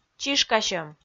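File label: "чишкасьӧм", Komi, female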